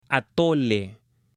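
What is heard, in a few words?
"atole", Spanish, male; AppLenguaje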